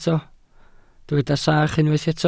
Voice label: "sach", Welsh

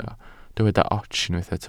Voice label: "ots", Welsh

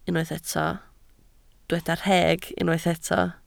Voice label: "rheg", Welsh

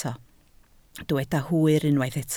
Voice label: "hwyr" Welsh

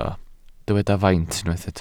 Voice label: "faint", Welsh

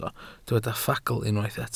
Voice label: "ffagl" Welsh

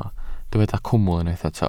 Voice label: "cwmwl" Welsh

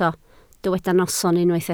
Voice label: "noson" Welsh

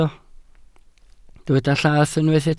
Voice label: "llath", Welsh

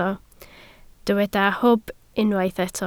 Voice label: "hwb", Welsh

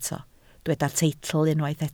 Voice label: "teitl", Welsh

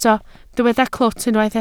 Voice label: "clwt", Welsh

